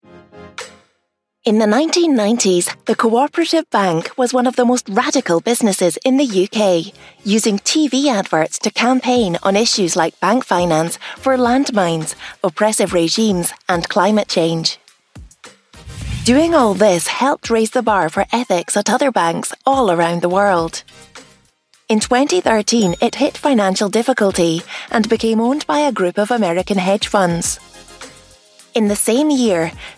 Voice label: ethical consumer, Bright Subtly Husky Scottish Voice; coop bank